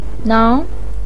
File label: "nang2", Chinese pinyin voice